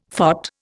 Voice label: "phot", Indian; Dictionary